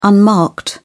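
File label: "unmarked", English, British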